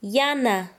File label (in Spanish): "negro", Quechua Chanka